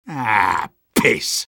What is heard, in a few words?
negative vocalization; sniper; garrysmod; tf2-content-gmodcontent